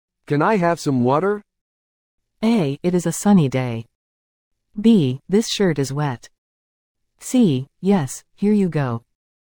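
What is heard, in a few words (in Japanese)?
ノーマル, リスニング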